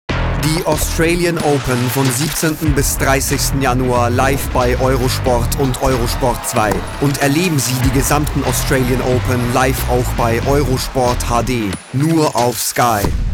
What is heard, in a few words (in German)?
WERBUNG; EUROSPORT AUSTRALIAN OPEN